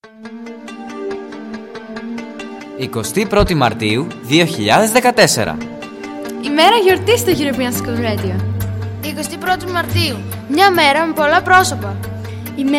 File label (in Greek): spot; 1 Hmera Poihsis